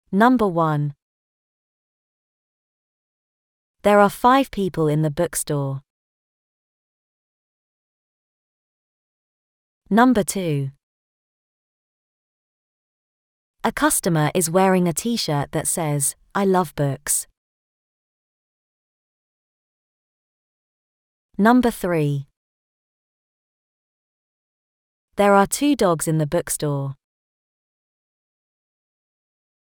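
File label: British describing pics; bookstore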